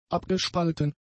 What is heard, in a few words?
"abgespalten", German; adjectives